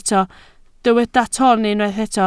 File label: "toon", Welsh